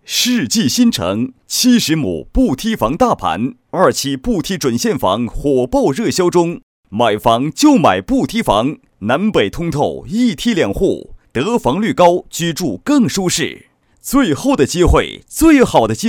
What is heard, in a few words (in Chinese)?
男, 国语, 大气 磁性, 广告; 世纪新城